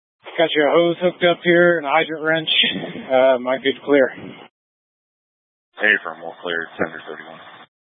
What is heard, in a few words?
Incident Tac 1, scanner